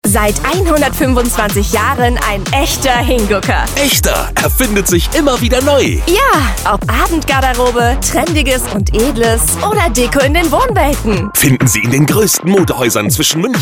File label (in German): Funkspot; Echter 125 Jahre